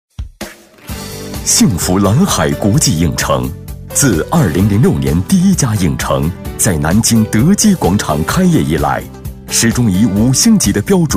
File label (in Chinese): 男 专题 影城宣传介绍 轻松活泼